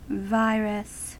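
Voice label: "virus", US English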